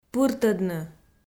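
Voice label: "пыртӧдны", Komi, female; kpv audio dict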